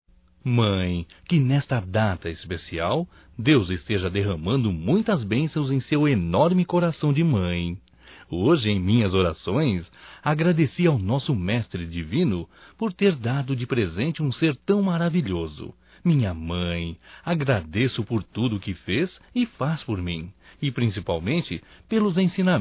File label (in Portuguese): Aniversário Mãe Evangélico Masculino; Aniversarios; Telemensagens 2017